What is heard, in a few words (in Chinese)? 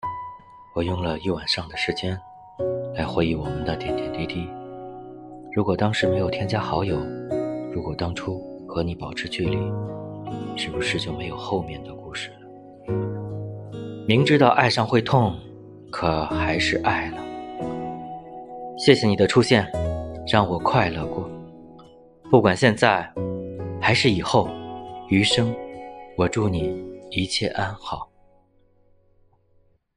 情感旁白